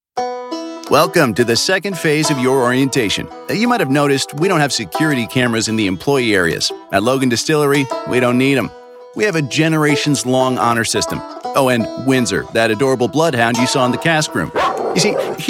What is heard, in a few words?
american voice elearning